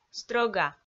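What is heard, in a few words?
"стрӧга", Komi, female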